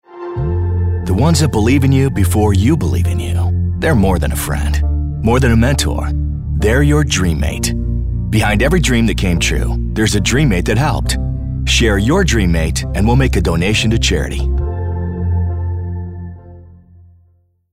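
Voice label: Coversational Journey Charisma - English; Dreammate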